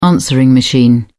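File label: "answering machine", British English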